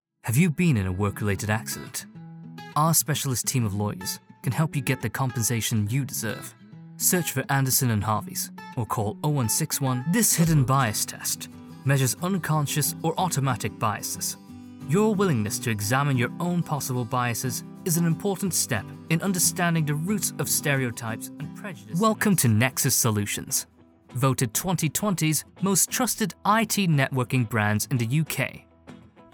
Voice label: Corporate